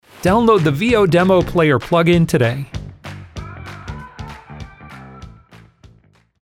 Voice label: voice over